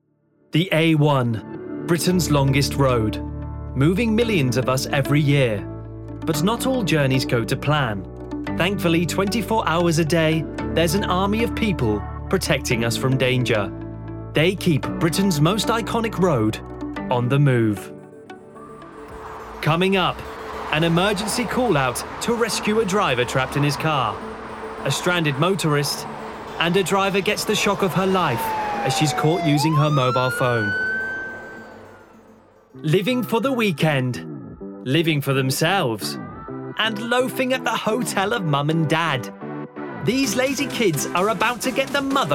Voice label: Documentary

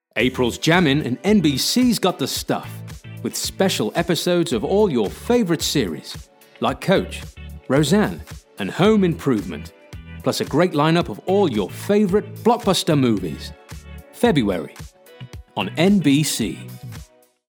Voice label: with Music; NBC

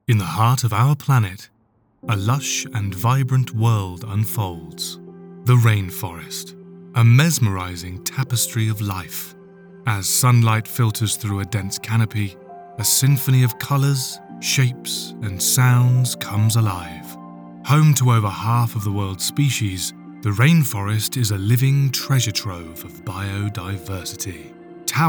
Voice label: Narration

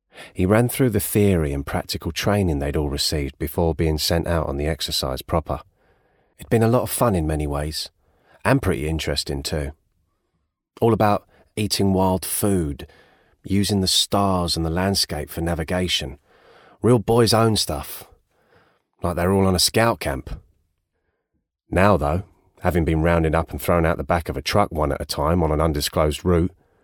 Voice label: Narration

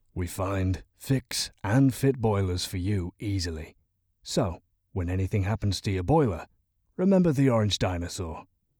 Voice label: Engineering TVC, Strong, Assured, Direct

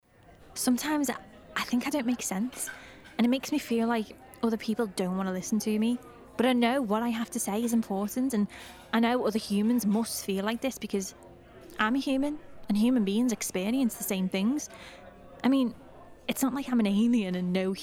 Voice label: Monologue Heightened Liverpool

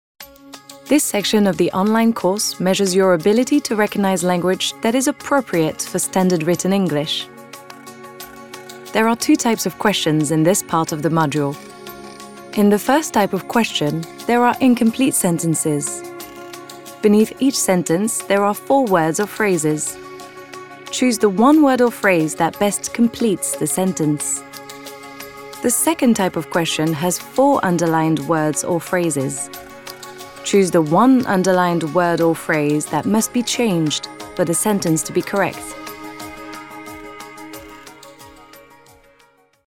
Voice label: eLearning

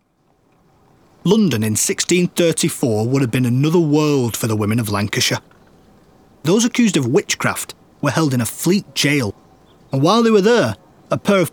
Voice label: Documentary Northern Witches

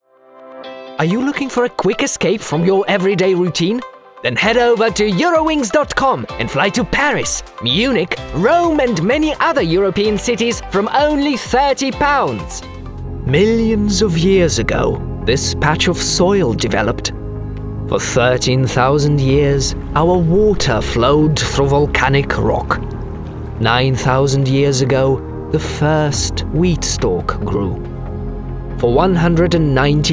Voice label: Commercial English

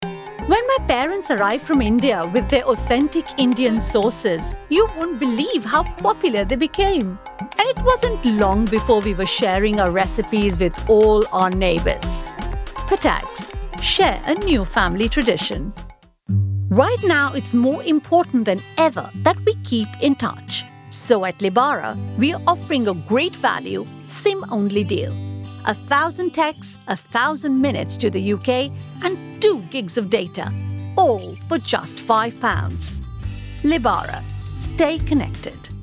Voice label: Adverts

